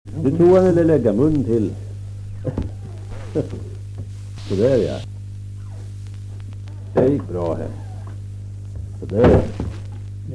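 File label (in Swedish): Österfärnebo Gästrikland, dialekter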